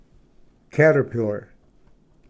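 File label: "caterpillar", Pronunciation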